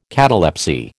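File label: "catalepsy", American English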